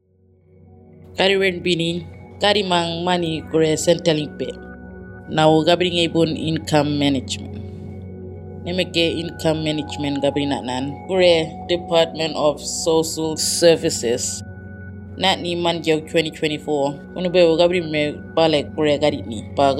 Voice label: Kunwinjku; Income Management Consult

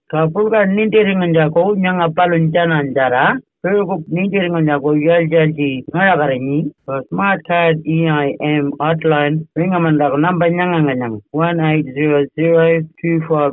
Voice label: Pitjantjatjara

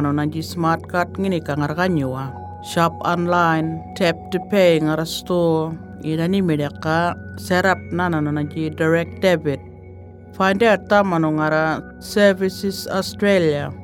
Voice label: enhanced income management murrinh patha

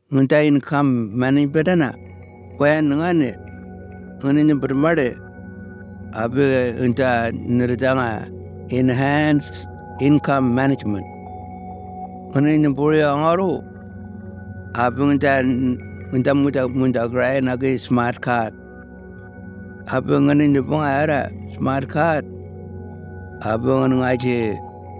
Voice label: tiwi; enhanced income management

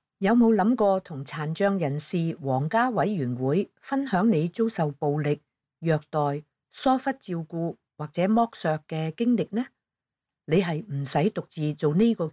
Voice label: cantonese; dss disability

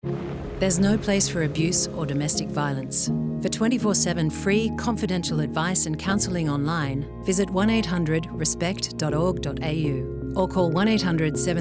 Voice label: radio; 1800respect

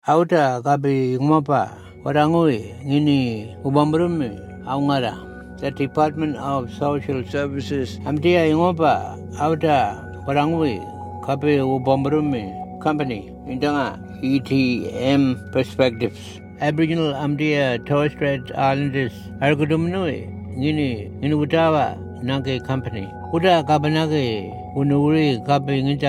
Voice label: Tiwi; Income Management Consult

